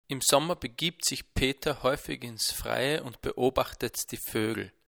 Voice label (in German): mikro pop nahe direkt ohne